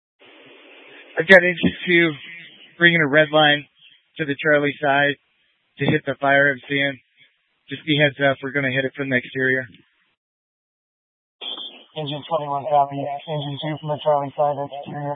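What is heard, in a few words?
Incident Tac 1, Scanner audio recording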